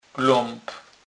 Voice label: "klap", kurpie

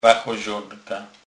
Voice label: "wachozonka", kurpie

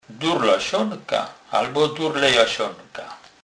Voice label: "durlasonka", kurpie; slownik

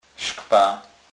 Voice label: "skwa", kurpie

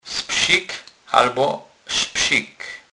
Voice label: "spsik", kurpie

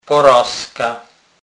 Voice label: "porazka", kurpie

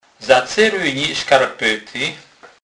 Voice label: "cerowac"; slownik

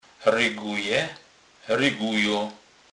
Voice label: rygowac, Kurpie

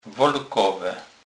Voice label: "wolkowe", Kurpie

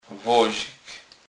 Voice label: "wozik", Kurpie

